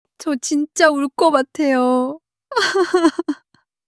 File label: crying, monologue